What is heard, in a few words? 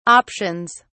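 "options", British English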